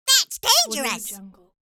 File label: cartoon voice that's dangerous